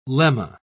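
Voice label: "lemma", British English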